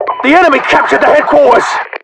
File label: announcer radio captured